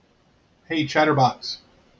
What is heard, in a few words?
hey chatterbox, en-us